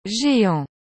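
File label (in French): "geant", French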